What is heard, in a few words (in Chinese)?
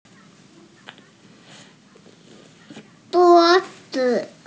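"zhuo1zi5", Mandarin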